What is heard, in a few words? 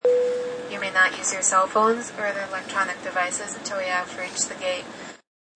Stewardess After Landing, Stewardess makes announcements after airliner lands; Airplane Sound Effects; Sound Effects:Vehicles